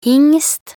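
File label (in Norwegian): "hingst", Norwegian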